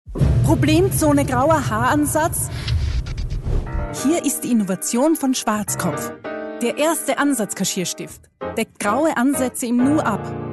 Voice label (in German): WERBUNG; SCHWARZKOPF